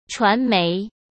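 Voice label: "传媒" Mandarin Chinese; hsk; chinese-flashcards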